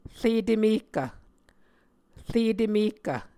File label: "re temehkv", Muscogee